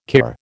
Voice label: "kir", American